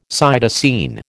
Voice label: "cytosine", American